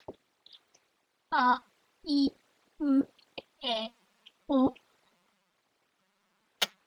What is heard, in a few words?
voice changer